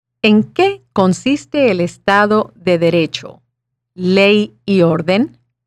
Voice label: Spanish